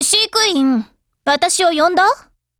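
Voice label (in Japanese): loading, Japanese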